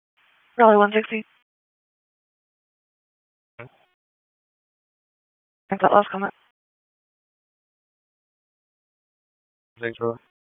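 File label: Police Southwest District Dispatch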